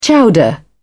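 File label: "chowder", British English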